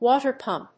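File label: "WATER PUMP", English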